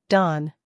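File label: "humor", British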